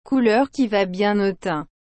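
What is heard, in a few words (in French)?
"couleur qui va bien au teint", French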